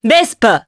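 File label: Vox Japanese; Morrah; Vespa; kingsraid; jp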